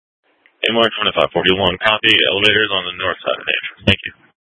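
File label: Gilbert, Fire Department, scanner; Mesa - Gilbert - AJ FD Departments; C4 Gilbert, QC, Superstition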